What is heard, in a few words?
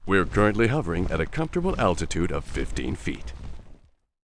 Windserpent pissed